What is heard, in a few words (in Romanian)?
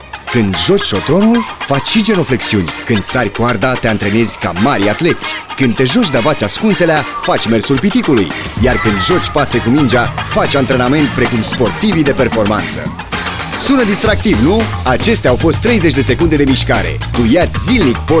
Spot radio; VIATA; Miscarea